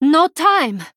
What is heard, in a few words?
Female Draenei Time